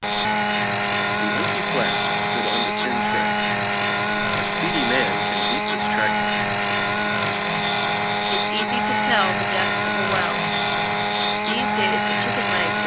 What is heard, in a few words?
close talking mic